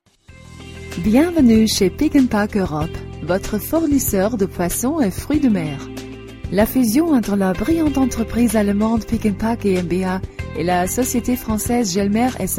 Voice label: french commercial